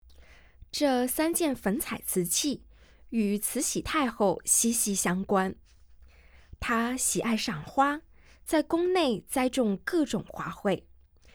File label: Mandarin, audio guide